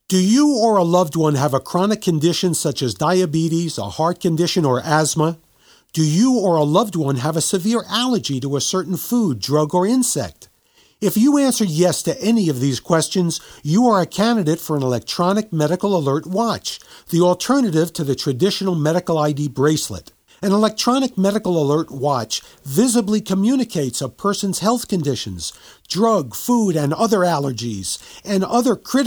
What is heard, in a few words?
radio ad